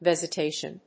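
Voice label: "Vesication", English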